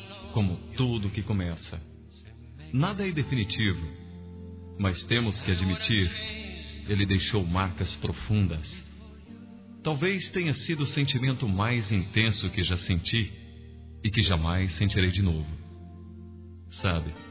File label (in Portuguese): Para Ex Masculino, Telemensagens